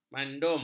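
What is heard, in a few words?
"mandum", Malay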